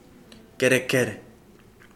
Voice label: sand, Kapingamarangi

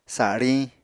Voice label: "sariñ", apatani

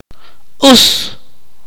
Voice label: "fly", akateko

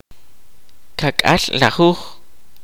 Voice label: "fifty", kaqchikel

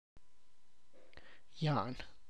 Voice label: "corn", tlacochahuaya

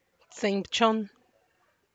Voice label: eighteen, Santiago Matatlán Zapotec; tsëñbchon; (Spanish) dieciocho

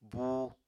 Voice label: "buu", Apatani

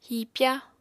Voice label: "hipya", apatani

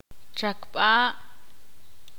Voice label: ixil; estar de pie

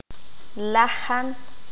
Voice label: "lo mismo", Q'anjob'al

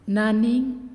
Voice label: "Person", Naasioi

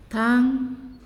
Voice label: "Path", Naasioi

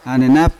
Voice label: "anenap", Yawa; grandfather; unat

